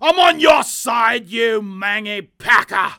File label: Dwarf Friendly Fire